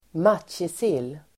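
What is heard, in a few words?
"matjessill", Swedish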